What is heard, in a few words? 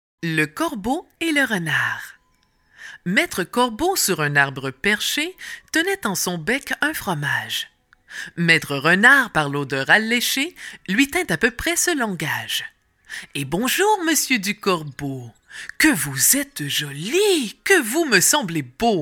poem